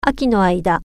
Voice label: during fall, japanese

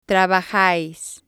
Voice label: "trabajais", Spanish

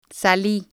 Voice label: "sali", Spanish

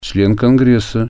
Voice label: congressman, russian

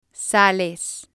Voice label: "sales", spanish

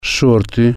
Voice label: shorts, Russian

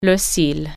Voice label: "eyelash", French

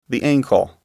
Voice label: "ankle", English

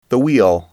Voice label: "wheel", English

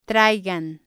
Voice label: "traiga", Spanish

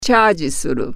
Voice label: charge, japanese

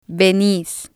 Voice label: "venis", Spanish

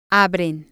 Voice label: "abren" Spanish

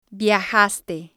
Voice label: "viajaste", Spanish

